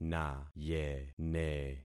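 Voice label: "nayene", concatenated syllables; Affixing experiment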